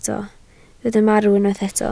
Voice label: "marw", Welsh